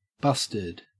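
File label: "busted", Australian English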